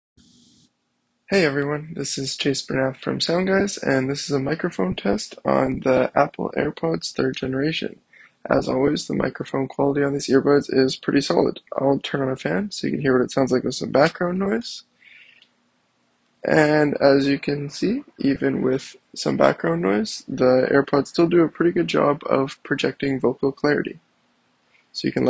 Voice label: Apple AirPods 3rd generation mic test